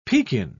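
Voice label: "pekin", English, British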